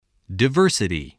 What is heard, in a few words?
"diversity", spelling